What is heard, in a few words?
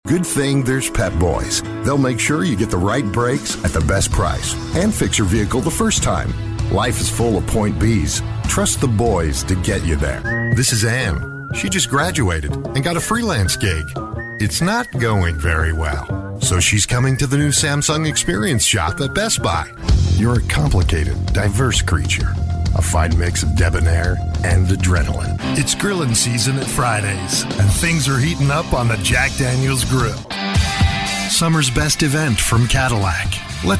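US English, commercial; GoLocalise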